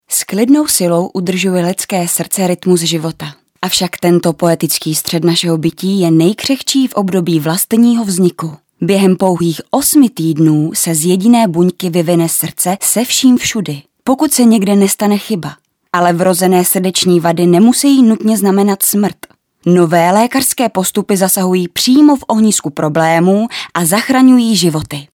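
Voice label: Female Czech documentary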